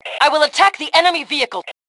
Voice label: Self Attack Vehicle, Female; T2 Female 02